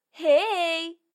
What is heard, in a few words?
bonjour, voix